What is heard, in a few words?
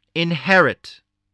"inherit", spelling voice